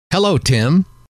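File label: hello, male; trainer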